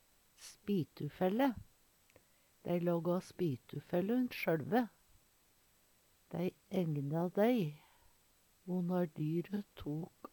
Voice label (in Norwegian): spitufelle, Numedalsmål